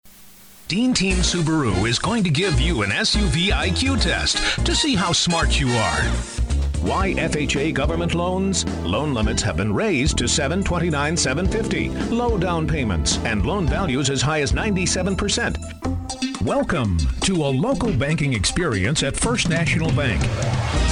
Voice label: Commercial Male

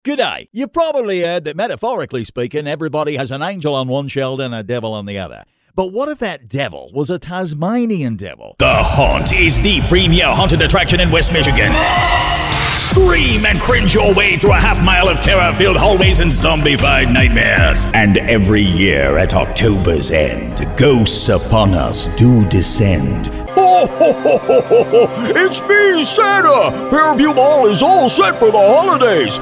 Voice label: Characters Male; commercial voiceover

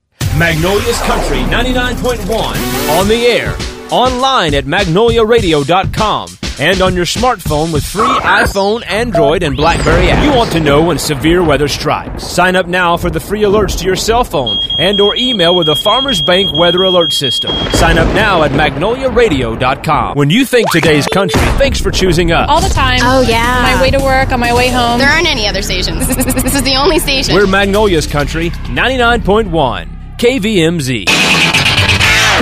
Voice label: Imaging Male

